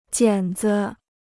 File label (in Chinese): "剪子", Chinese